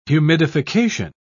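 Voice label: "humidification", English, British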